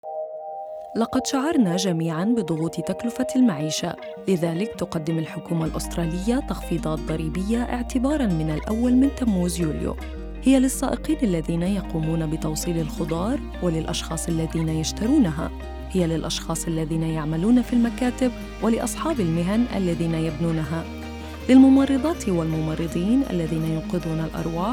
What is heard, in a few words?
radio, Arabic